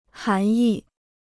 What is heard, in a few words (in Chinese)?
"涵义", Chinese